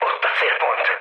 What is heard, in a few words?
near waypoint, mood high, speech hun; War Thunder